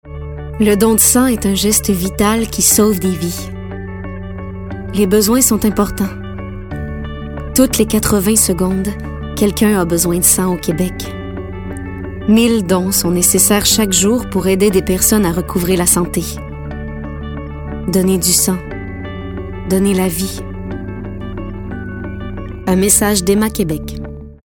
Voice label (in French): Douce rassurante; Don de sang